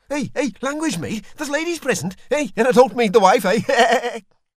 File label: liverpudlian